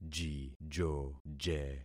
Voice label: "jijoje", concat syllables; Affixing experiment